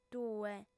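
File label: "tue", female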